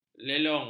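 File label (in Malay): "lelong", Malay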